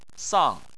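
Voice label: "sang" tone 4, Chinese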